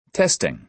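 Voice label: "testing", American English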